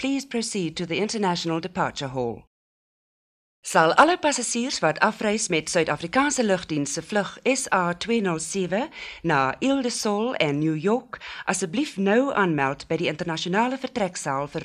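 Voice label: Airport; Heathrow Airport London. Terminal Three East African Airways Flight Announcements With Background Activity.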